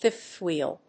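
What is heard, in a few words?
"fifth wheel", English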